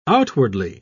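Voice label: "outwardly", English, British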